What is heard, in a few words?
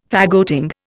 "faggoting", Indian